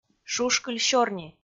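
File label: "шушкыль сёрни", Komi, female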